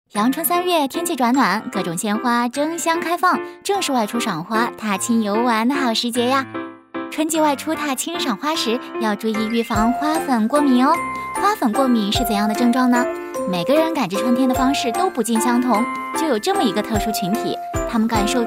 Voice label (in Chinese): 女,轻松 科普花粉过敏